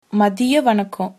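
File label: good afternoon, Tamil, female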